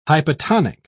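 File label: "hypotonic", British English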